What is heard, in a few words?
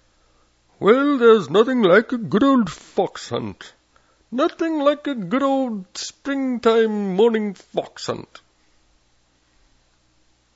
English